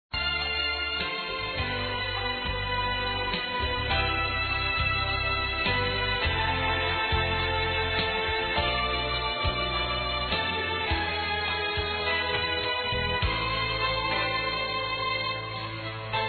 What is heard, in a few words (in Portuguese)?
ANIVERSÁRIO NETA F, Telemensagens, Fem; Aniversários; Aniv Familiar